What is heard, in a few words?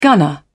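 "gunner", British English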